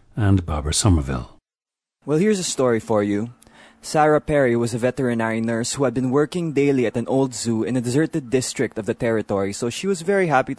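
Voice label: philippines, dialects of English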